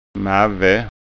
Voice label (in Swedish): "mave", skånska